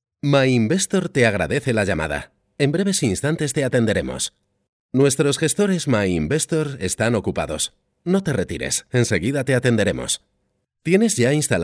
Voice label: Spanish Castilian IVR